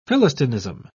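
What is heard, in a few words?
"philistinism", British English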